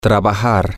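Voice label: to work, Spanish, male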